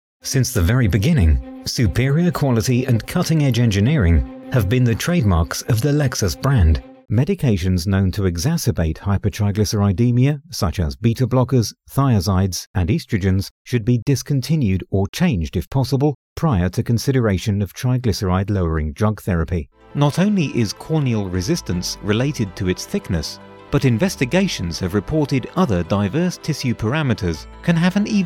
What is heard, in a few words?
english british corporate videos